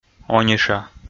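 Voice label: "ониша", Komi, male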